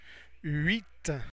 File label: "huit", French